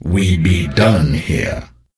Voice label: NPC Death Knight Troll Male Farewell